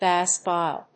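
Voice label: "bass viol", English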